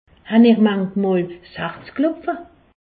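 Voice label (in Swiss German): "herzklopfe", Alsatian; medecine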